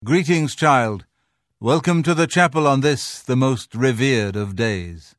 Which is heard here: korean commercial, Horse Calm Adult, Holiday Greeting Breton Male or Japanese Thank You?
Holiday Greeting Breton Male